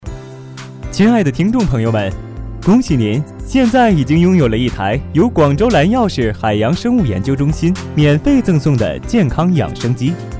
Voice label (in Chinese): 男 电视广告（年轻）